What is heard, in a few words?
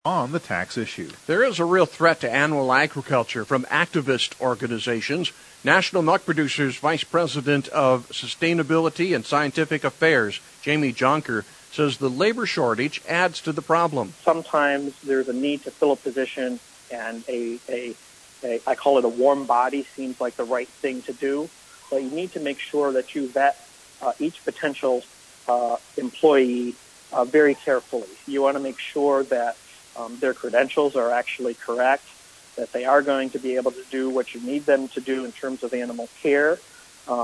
air check; National Milk